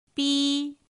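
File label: "bī", Mandarin Chinese pinyin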